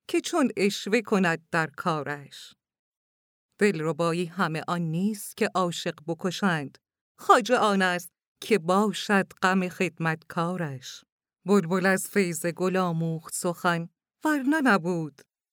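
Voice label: Farsi, female; farsi voice over talent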